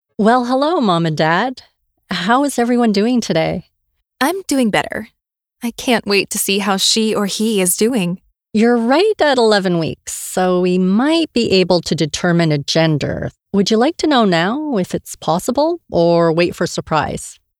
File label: MEDICAL INTERACTIVE